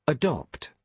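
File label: "adopt", British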